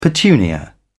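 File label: "petunia", English, British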